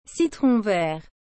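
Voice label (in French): "citron vert", French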